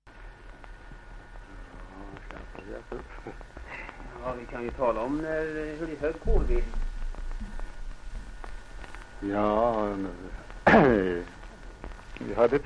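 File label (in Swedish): Järbo Gästrikland, dialekter; Folkminnen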